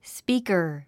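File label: "speaker", English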